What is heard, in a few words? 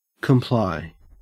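"comply", Australian English; pronunciations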